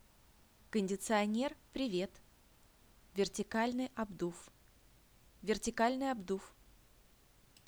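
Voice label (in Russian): женский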